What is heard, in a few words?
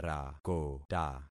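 "rakota", concatenated syllables; Affixing experiment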